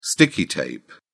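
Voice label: "sticky tape", British English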